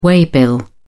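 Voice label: "waybill", British English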